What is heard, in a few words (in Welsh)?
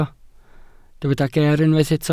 "geer", Welsh